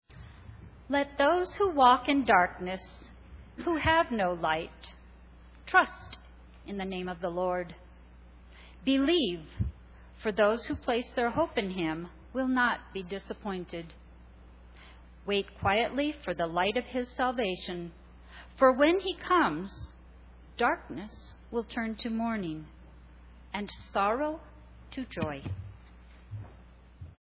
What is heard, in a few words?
Narration; Cantata